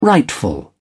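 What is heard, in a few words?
"rightful", British English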